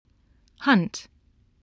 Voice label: "hunt", voice spelling

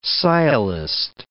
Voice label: "sciolist", English

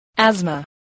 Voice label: "asthma", english

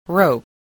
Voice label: "rope", English spelling

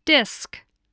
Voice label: "disc", english spelling

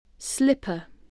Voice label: "slipper", voice, spelling

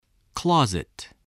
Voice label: "closet", voice

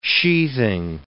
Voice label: "sheathing", English

